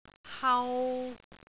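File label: "hau3", Cantonese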